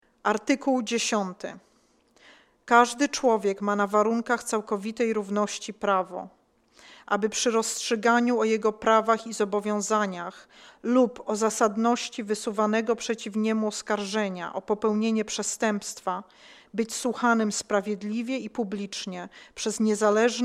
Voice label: Polish